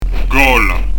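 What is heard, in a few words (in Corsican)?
"gola", Corsican; Son "appuyé"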